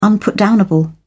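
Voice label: "unputdownable", British English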